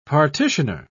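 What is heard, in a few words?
"partitioner", British English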